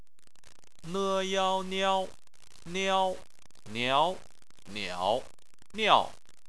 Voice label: "niao", chinese

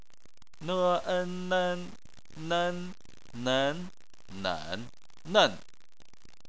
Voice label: "nen", chinese

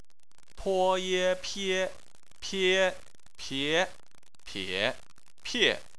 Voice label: "pie", chinese

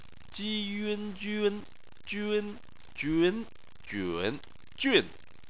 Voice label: "jun", chinese

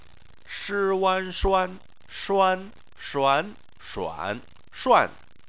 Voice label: "shuan", chinese

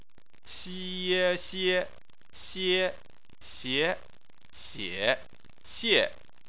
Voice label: "xie", chinese

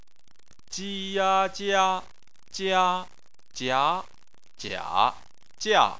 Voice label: "jia", chinese

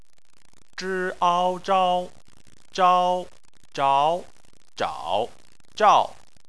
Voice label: "zhao", chinese

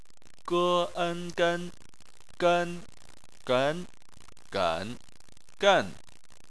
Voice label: "gen", chinese

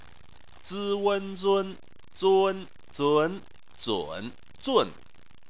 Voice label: "zun", Chinese

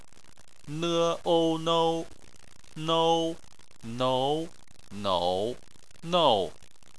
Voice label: "nou", chinese

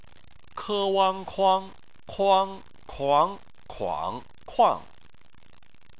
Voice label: "kuang", chinese

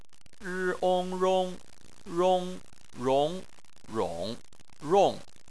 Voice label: "rong", chinese

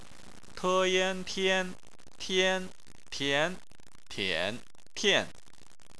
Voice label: "tian", chinese

